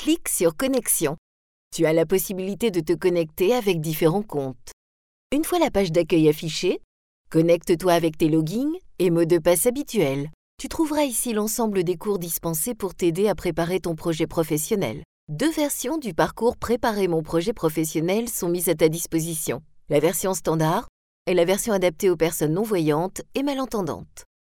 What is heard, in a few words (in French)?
Tuto; tutoriel